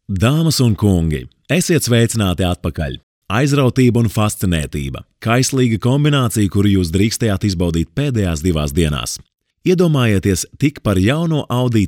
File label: latvian m narration, Latvian voice; voice demos